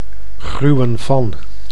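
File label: "gruwen van", Dutch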